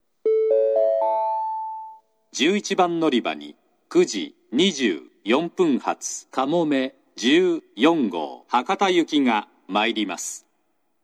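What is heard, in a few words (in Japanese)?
11番のりば接近予告放送, 男声, 西九州新幹線ホーム 自動放送; 11番のりば接近予告放送（かもめ14号 博多行き）※武雄温泉で、みどり14号に接続; 概ね入線の4～5分前に流れます。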